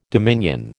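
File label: "dominion", American English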